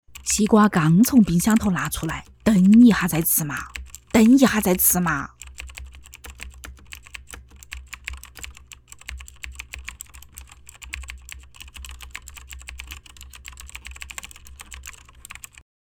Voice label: 四川方言 女 四川话 妈妈角色; 方言配音

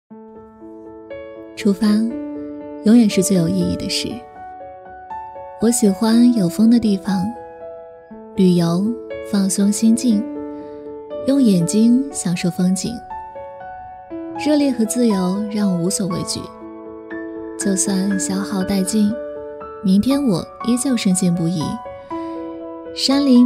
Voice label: 女 文旅; 青海湖

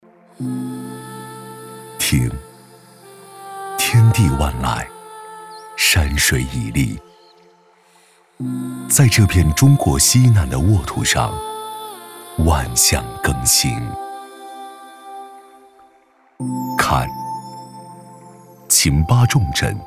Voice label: 男 大音 城市招商; 达川

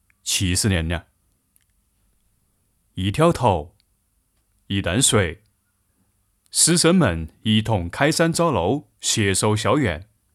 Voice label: 武汉方言 男 武汉方言; 方言配音

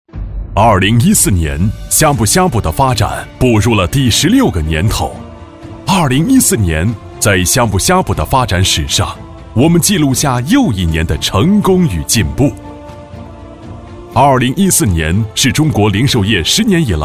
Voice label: 男国 企业宣传片; 呷哺呷哺

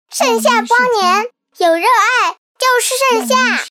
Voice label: 女 男真童; 盛夏; 真童配音

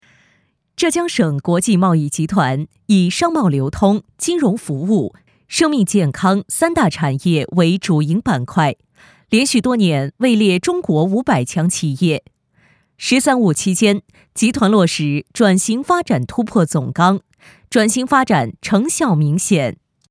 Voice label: 女 新闻播报; 新闻风格样音

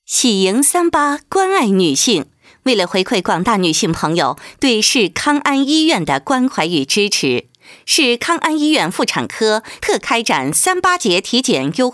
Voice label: 女国 医院

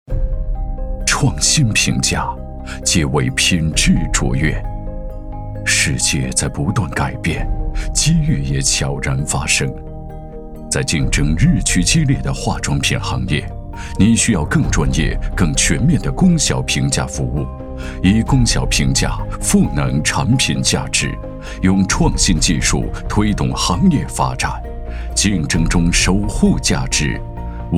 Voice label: 男 形象宣传; 走心企业形象片样音

